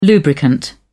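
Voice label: "lubricant", British English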